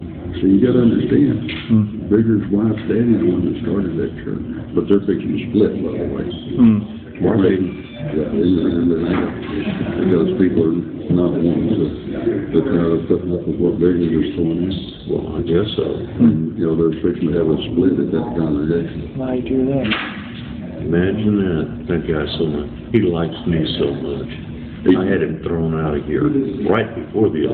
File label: Hot Mic